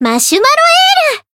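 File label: heal, Japanese; melody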